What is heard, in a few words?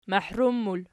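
"mahrum", plural, Archi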